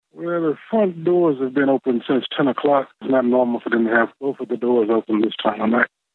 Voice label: PHONE CALL